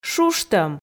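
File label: "шуштӧм", Komi, female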